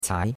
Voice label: "cai2", chinois; Cours-de-Chinois

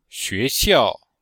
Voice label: "xue2xiao4", chinois; Cours-de-Chinois

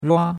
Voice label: "rua1", chinois; Cours-de-Chinois

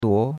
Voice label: "duo2", chinois; Cours de Chinois

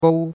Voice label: "gou1", chinois; Cours-de-Chinois

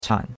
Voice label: "chan3", chinois; Cours-de-Chinois

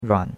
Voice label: "ruan3", chinois; Cours-de-Chinois

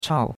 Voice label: "chao4", chinois; Cours-de-Chinois

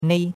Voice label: "nei1", chinois; Cours-de-Chinois

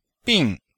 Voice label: "bing4", chinois; Cours de Chinois

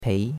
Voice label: "pei2", chinois; Cours de Chinois

